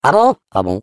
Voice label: Defective Turret defect pass, fr; sp sabotage factory

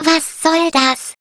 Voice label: Turret pickup, de

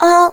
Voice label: turret collide, fr

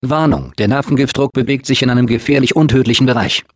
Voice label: Announcer sabotage implosion, de

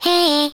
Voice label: Turret pickup, de

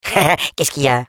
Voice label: Defective Turret sabotage factory defect test, fr

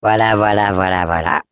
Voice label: Defective Turret, fr; sp sabotage factory; defect pass

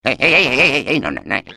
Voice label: Defective Turret defect fail, es; sp sabotage factory

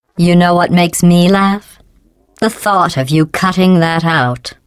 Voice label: taunt laugh; GLaDOS

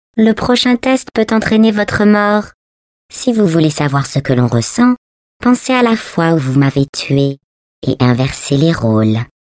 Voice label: fr; GLaDOS; sp laser powered lift entry

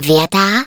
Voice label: turret deploy, de

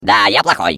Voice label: Defective Turret sabotage factory defect pass ru

